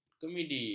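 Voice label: "kemidi", Malay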